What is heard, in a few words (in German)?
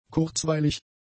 "kurzweilig", German; adjectives